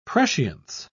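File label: "prescience", British English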